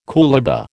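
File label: "coolibah", American English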